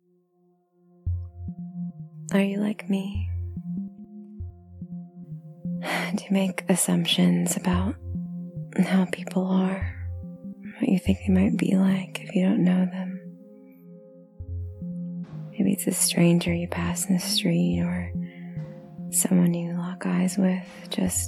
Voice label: F4A Imagining fantasizing rambles strangers soundscape, Erotic audio; [F4A] Imagining [fantasizing][rambles][strangers][soundscape] 1